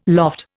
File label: "loft", Indian